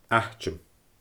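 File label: "aiteam", Scottish Gaelic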